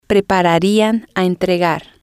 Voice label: prepararían entregar, Spanish

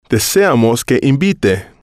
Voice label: deseamos que invite, Spanish

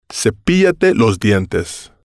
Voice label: cepíllate los dientes, Spanish

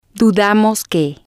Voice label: dudamos que, Spanish

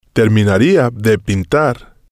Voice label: terminaría de pintar, Spanish

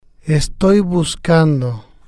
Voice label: "estoy buscando", Spanish

